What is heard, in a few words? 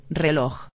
"reloj", espanhol